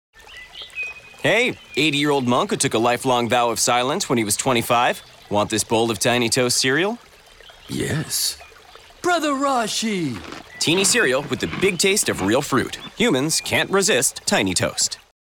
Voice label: radio spot; Monk Tiny Toast